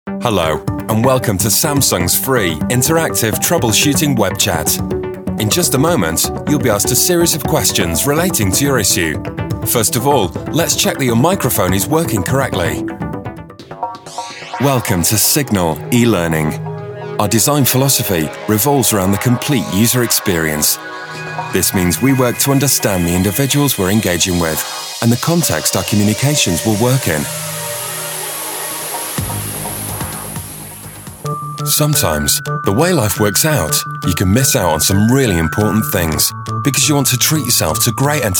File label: british narration; BRITISH » Trendy and cool British voice.